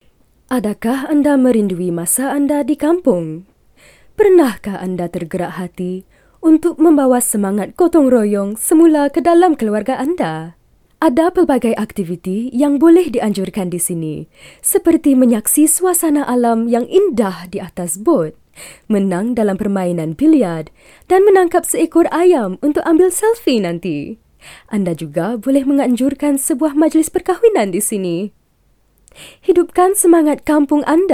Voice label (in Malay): Malay; Kampung Sayang